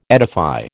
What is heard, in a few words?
"edify", American